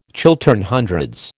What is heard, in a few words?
"Chiltern Hundreds", American